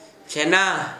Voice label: cheese, Gta, Dialect: Hill; tʃena